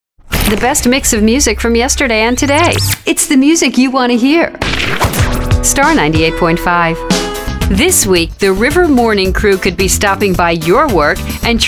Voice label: Female American